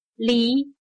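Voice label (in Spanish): "离", chino mandarin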